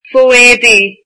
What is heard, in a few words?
underwear, irish